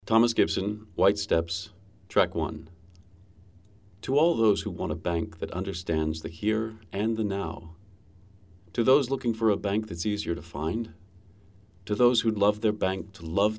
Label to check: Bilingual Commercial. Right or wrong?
Wrong